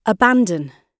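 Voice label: "abandon", British English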